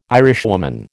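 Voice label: "Irishwoman", American English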